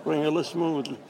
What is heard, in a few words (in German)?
Dialekte; GHV Dialekte 2018